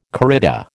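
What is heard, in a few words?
"corrida", American English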